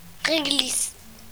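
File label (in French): "reglisse", French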